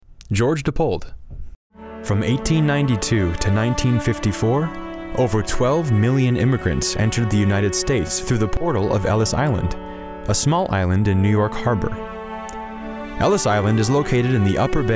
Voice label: Narration